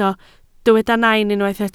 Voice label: "nain", Welsh

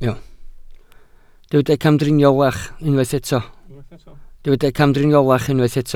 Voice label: "camdriniolach", Welsh

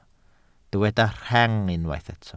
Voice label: "rheng", welsh

